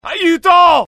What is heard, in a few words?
fear, IT; dialogue; mohaa